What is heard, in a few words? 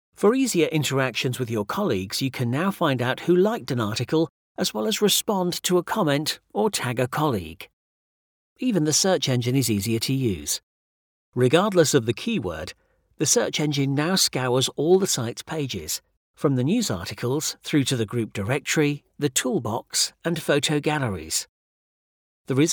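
British English, male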